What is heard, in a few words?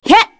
Vox, kr; Artemia; kingsraid